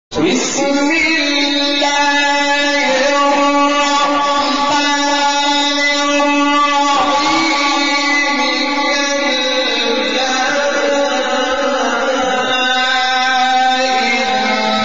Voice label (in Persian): تلاوت زیبا از سوره مطففین; محمود شحات انور